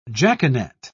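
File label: "jaconet", British English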